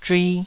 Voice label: "zhui2", taiwanese